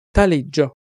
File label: "taleggio", Italian Pronunciations